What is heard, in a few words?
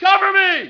cover me, yell